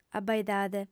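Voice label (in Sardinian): "abaidade", lingua sarda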